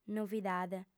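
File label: "novidade", Sardinian, female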